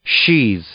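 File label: "sheathe", English; verb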